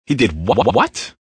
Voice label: He Did What, Male; Talking

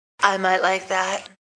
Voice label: Sexy Vocal; Talking

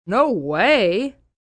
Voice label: No Way Teen, child, boy, teenager; Talking Sound Effects; Kids; VoiceBox; Twistedtracks

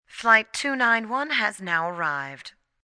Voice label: Arrived, Airport, PA, announcements, female

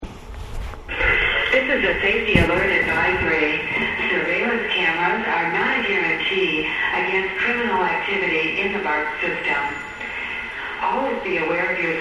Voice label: Bay Area train station announcement; Yvette Jackson; Transportation

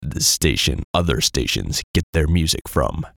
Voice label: VOICE COMICAL; The Station That Other Stations; Funny Sound; Radio Voice; Production Element, Imaging Element, Accent, Transition; Blastwave

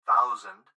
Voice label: Gps Voice, Male; Technology; Recorded Voice; Blastwave

